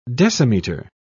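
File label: "decimetre", British English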